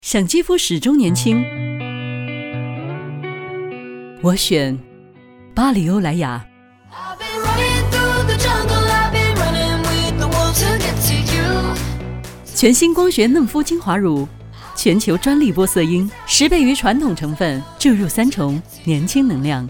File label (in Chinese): 女 专题 品质女声; 巴黎欧莱雅